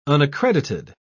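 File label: "unaccredited", British English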